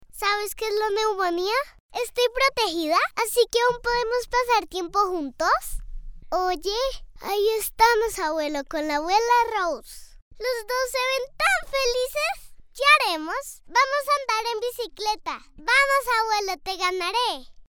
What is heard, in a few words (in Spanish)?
locución educativo